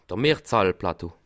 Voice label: "mhrzahl", alsace

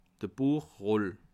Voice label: "buechroll", alsace

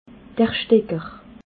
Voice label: "stecker", alsace

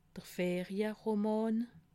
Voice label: "ferieroman", alsace

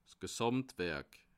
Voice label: "gsamtwerik", alsace

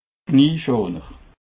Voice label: "knieschoner", Alsace

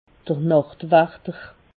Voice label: "nachtwachter", Alsace

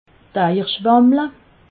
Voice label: "eierschwammle", alsace

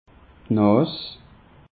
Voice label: "nas", alsace; peche

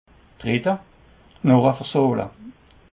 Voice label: "drette", alsace

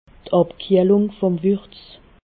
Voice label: "abkiehlungwurz", alsace

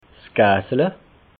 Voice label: "gassla", alsace

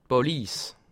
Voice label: "balise", alsace

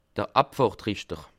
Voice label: "abfahrt", alsace

